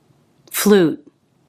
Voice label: "flute", English, Stop T

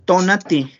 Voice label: "tonati", Náhuatl; sol; sun

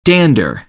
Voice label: "dander", American; English; ɚ - əɹ

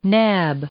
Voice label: "nab", English; verb

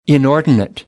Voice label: "inordinate", American; ɔːr - ɔː

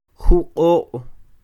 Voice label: "Juq'o'", Quiché; cuatrocientos; four hundred; INALI; UTexas; Números

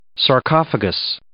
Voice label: "sarcophagus", English

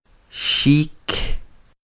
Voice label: "xik", Quiché; gavilán; hawk (gavilán); INALI; UTexas; CHQETA

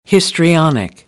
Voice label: "histrionic", American English; ɑː - ɒ

